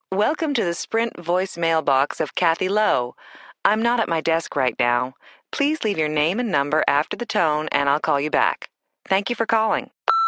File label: Telephone, english listening survival